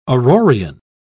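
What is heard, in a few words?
"aurorean", British English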